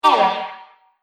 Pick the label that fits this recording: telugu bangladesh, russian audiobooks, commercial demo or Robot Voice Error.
Robot Voice Error